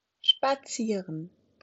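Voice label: "spazieren", German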